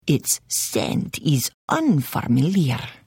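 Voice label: Hello, Khajiit female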